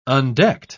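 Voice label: "undecked", British English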